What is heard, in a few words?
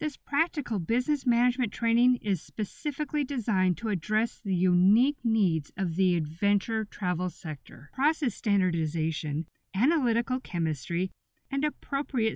narration